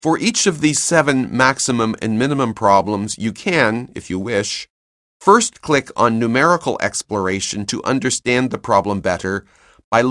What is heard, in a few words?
narration; CALCULUS 7E; cengage